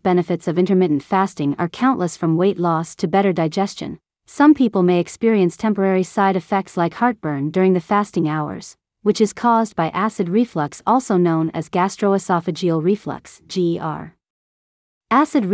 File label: Voiceover; How to Avoid Acid Reflux When Fasting